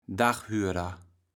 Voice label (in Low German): "Daghürder", kaevels platt